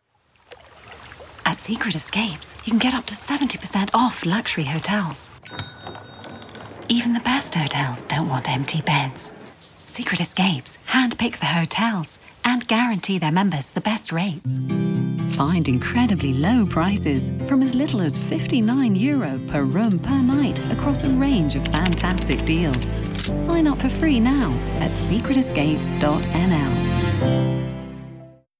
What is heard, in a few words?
british english female; Secret Escapes